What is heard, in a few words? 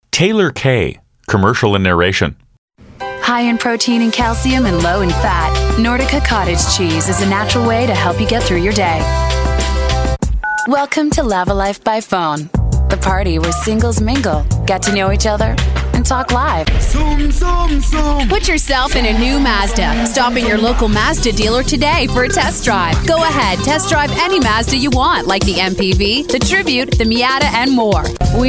USA